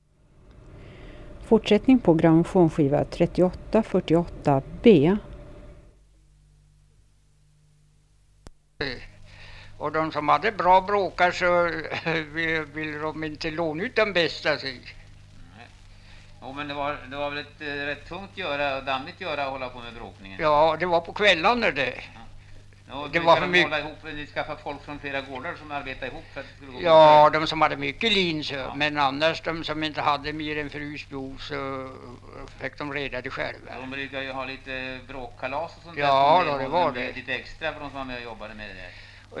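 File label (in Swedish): Edsbro Uppland, dialekter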